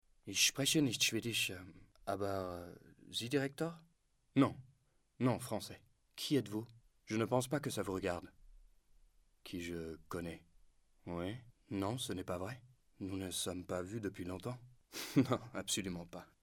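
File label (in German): französisch; LOFT VOICES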